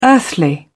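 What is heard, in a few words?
"earthly", British English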